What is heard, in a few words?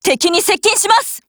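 ATTACK, Japanese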